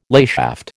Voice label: "layshaft", American